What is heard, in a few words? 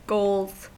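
"goals", US English; pronunciations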